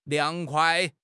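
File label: "liang2 kuai5", Mandarin Chinese; HSK; HSK4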